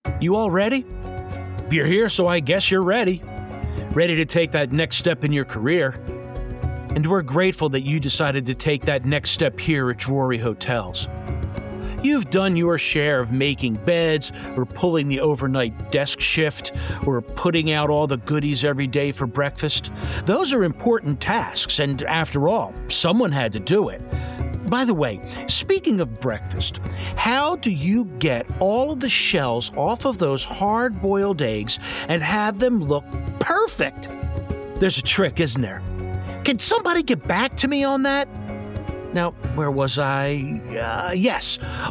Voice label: eLearning New Manager